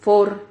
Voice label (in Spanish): "for", Silabas